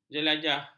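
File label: "jelajah", Malay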